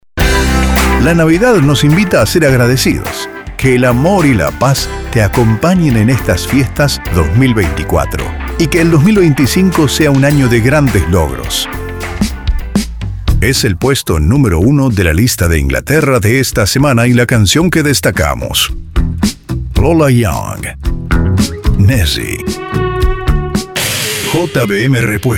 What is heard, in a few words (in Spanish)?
Voces Masculinas, Locutor Profesional